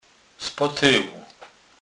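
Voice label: "z potelu", Kurpie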